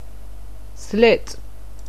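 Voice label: "slit", English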